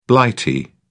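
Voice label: "blighty", British English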